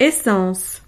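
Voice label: "essence", French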